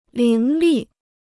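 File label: "伶俐", Chinese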